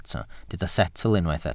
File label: "setl", Welsh